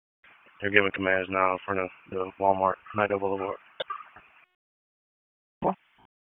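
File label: Sheriff's Office Dispatch 1, p25; wake p25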